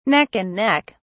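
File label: "neck and neck", British English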